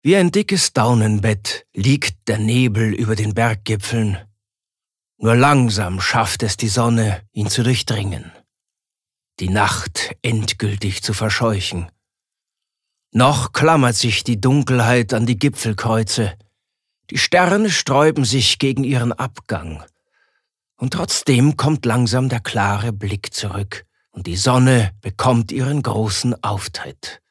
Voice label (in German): Schauspiel sanft